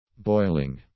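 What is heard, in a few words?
"boiling", English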